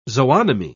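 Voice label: "zoonomy", British English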